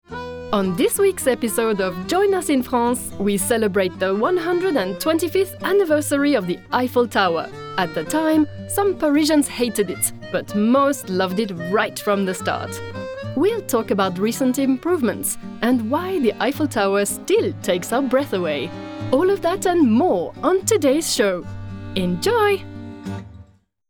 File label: english british promos